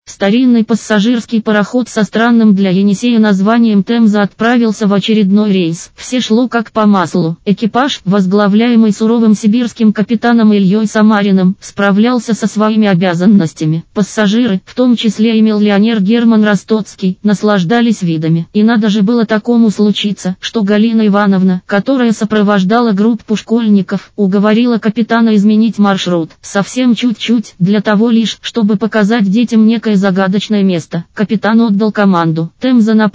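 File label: Russian; RHVoice; Elena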